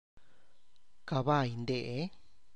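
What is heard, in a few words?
Is this a horse, Tlacochahuaya Zapotec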